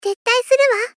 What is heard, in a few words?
Gentle Retreat "Tettai suruwa"